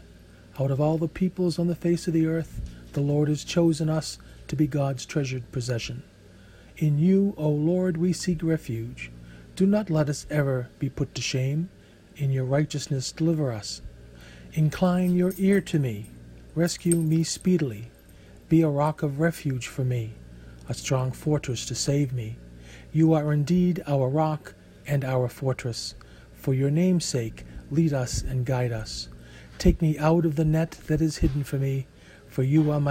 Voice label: call to worship opening prayer